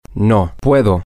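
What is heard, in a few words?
I can no, Spanish, male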